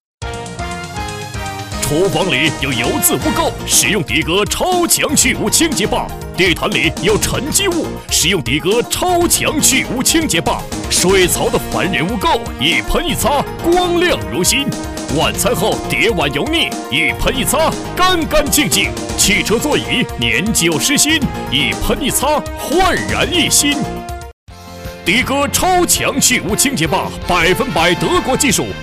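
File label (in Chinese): 促销广告配音, 男声配音, 激情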